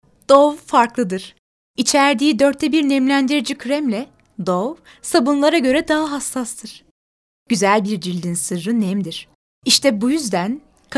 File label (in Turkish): reklam